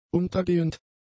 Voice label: "untergehend", German; adjectives